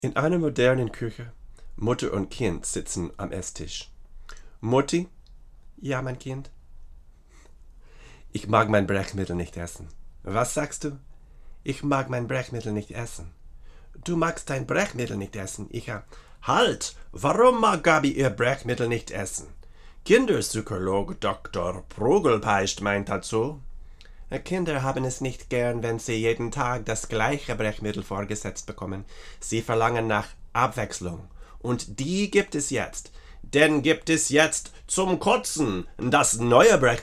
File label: Omni VO, Neumann KM88; Voice English / German / Latin